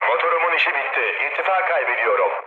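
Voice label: Turkish damaged engine, mood low, speech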